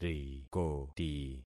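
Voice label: "rikoti", concatenated syllables; Affixing experiment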